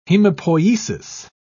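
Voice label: "hemopoiesis", British English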